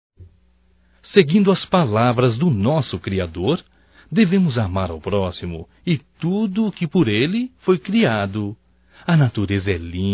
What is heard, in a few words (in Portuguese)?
HOMENAGEM AO VETERINARIO, Só Voz, masc; Telemensagens; HOMENAGENS